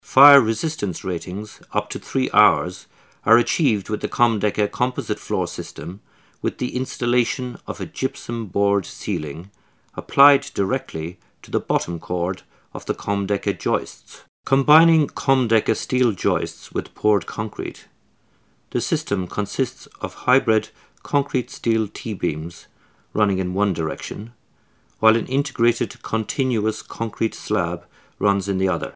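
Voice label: male English documentary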